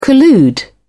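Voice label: "collude", British English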